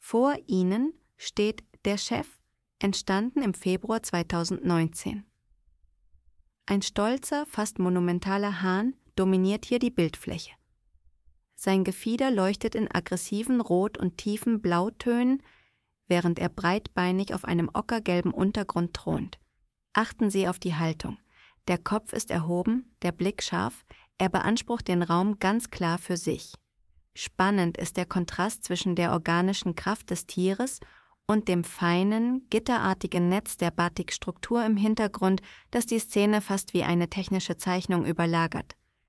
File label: voice audio guide; der chef